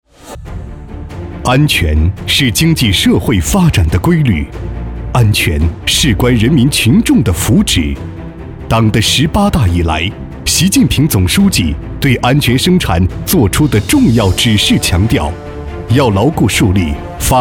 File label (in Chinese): 国语男; 强化政治责任，严守安全红线